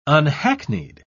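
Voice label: "unhackneyed", British English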